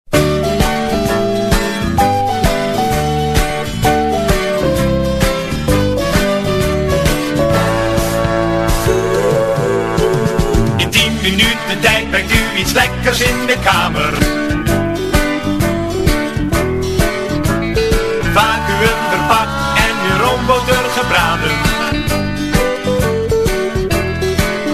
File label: commercial